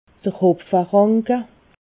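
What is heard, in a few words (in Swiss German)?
"hopferanke", Alsatian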